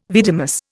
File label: "vidimus", Indian